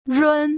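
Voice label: "run", Putonghua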